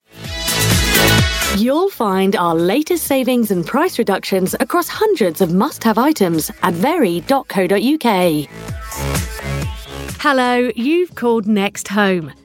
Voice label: telephone on hold

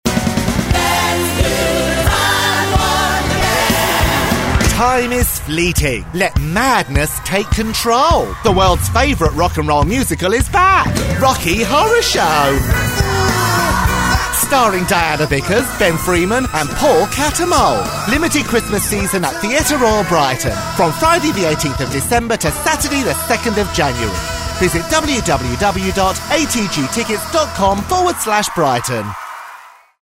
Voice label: camp voice

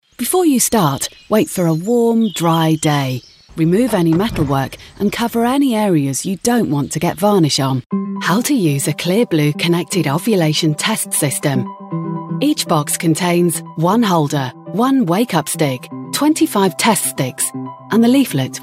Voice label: elearning cd-rom

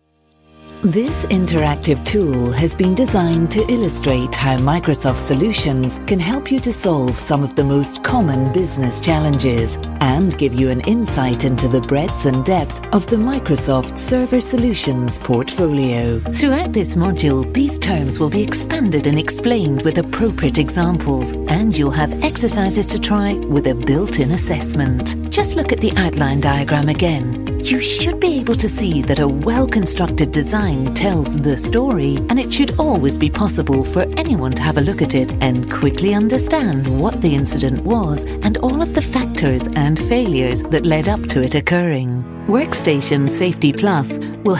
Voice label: elearning

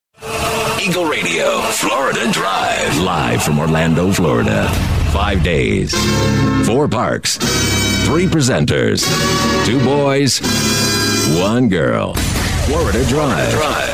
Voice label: deep american, imaging

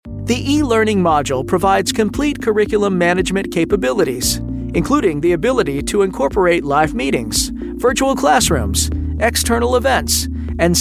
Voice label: american male elearning

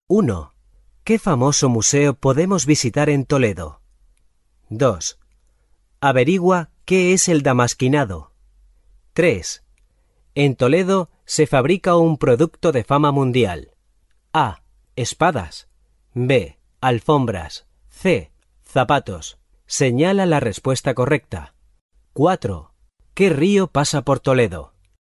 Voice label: spanish male audiobook

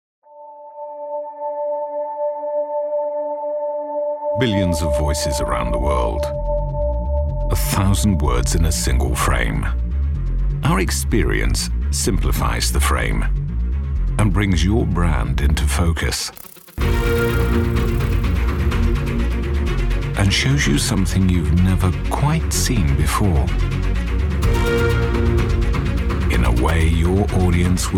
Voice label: corporate

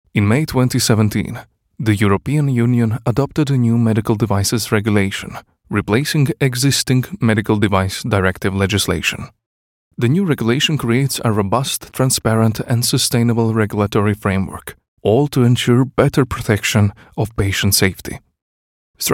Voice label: croatian voiceover documentary

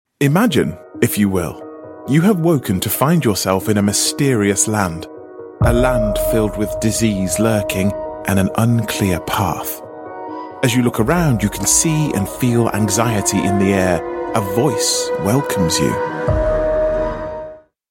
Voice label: narration

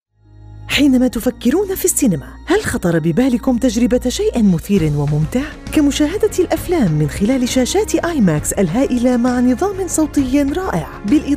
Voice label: arabic female corporate

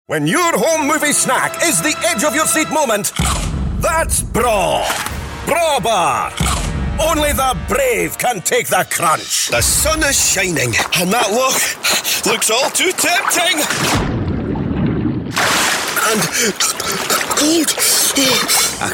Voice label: accents commercial

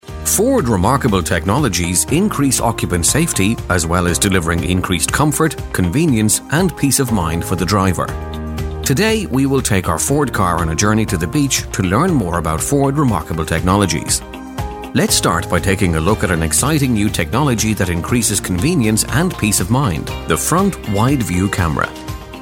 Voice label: irish voice corporate tutorial

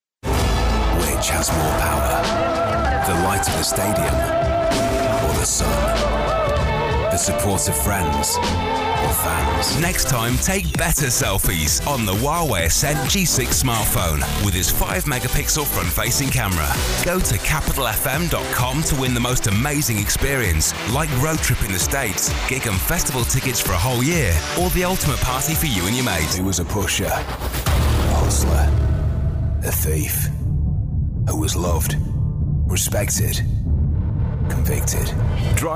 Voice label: commercial

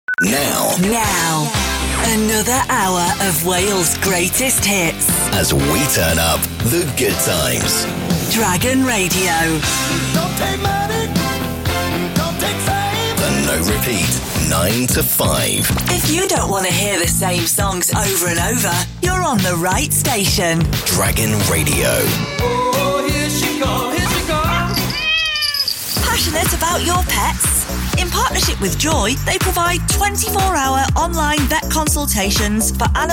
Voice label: imaging

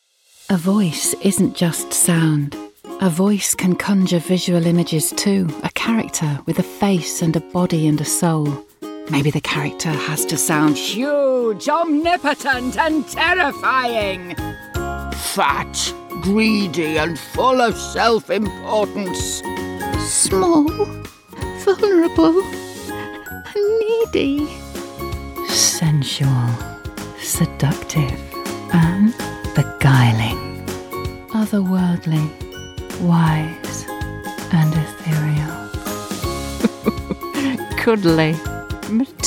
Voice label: animation

